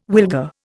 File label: "wilga", Indian